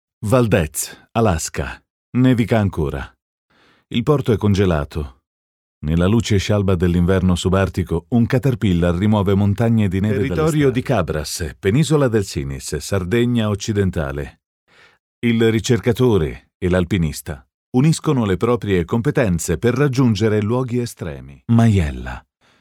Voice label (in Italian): italian male narrative